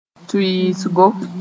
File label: "tʋɩɩsgo", Mooré; introduction